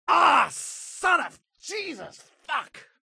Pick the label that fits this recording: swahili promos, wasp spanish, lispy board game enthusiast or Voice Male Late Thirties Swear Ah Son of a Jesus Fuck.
Voice Male Late Thirties Swear Ah Son of a Jesus Fuck